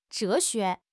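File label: "zhe xue" Chinese; 汉语口语新时代-初级下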